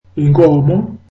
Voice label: zulu cow